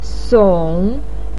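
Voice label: "song", Mandarin pinyin, third tone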